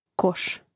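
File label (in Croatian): "koš" Croatian; Mrežnik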